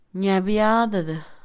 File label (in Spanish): Mixe, Mujer; encontrar; Sociales; INALI